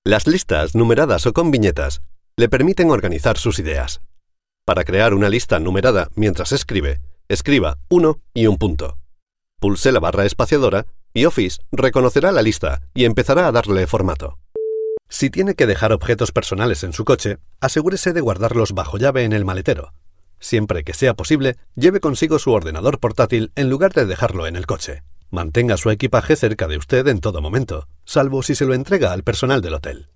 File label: Spanish e-learning